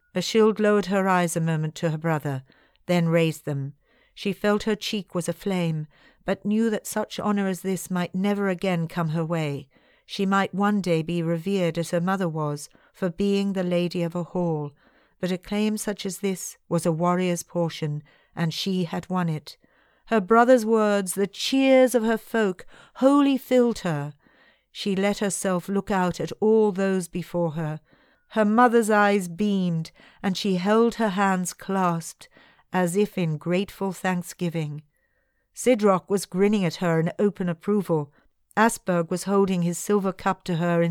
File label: historical, male; Nano Nagle; Silver Hammer